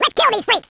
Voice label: alert, trooper; sc toysoldiers